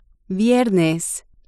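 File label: "viernes", Spanish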